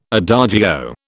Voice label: "adagio", British